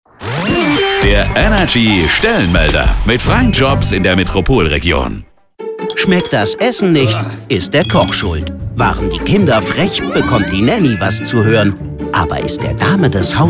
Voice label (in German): radiospot landschaftsgaertner; hofmann garten und landschaftsbau